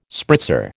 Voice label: "spritzer", American